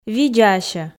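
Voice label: "видзаасьӧ", Komi, female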